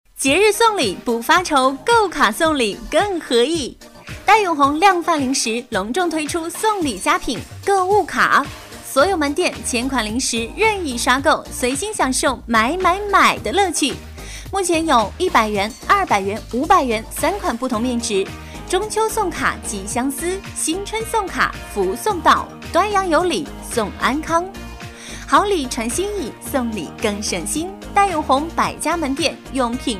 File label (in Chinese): 女 量贩零食（年轻）